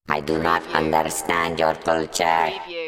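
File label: Robot I Do Not Und Culture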